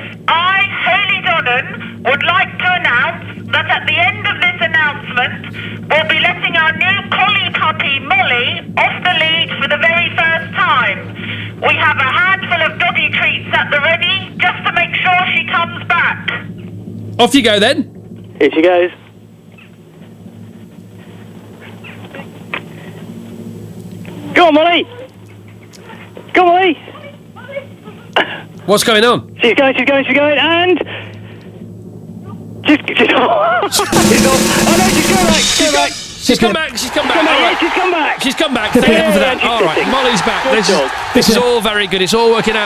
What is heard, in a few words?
megaphone call